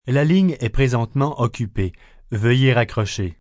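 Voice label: Line Busy French, Telephone operator, French, male; VoiceBox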